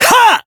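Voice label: Voice attack, Japanese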